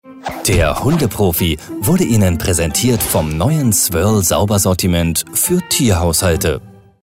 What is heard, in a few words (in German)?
Dynamisch